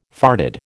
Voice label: "farded", American